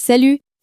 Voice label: "salut", French; flashcards

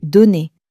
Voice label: "donner", French

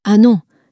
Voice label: "ah non", french

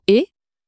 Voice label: "et", French

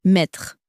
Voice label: "mettre", French

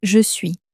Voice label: "je suis", French

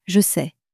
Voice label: "je sais", French

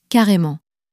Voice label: "carrement", french; flashcards

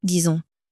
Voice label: "disons", French

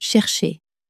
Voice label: "chercher", french; flashcards

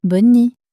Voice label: "bonne nuit", french

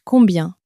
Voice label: "combien", French

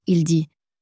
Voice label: "il dit", French

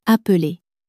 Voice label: "appeler", common french words

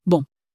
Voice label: "bon", french

